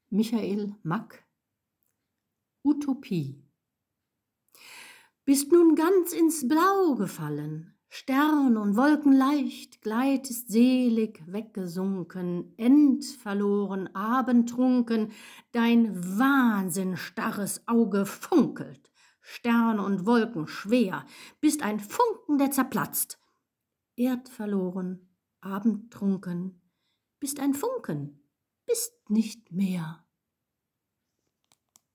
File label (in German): Audioguide Gedicht; Kult(o)urnacht 2022